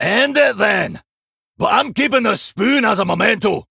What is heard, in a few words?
Male Dwarf; Tavish; Scabbs Mission7 Exchange D